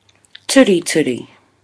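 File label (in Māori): "turituri", Māori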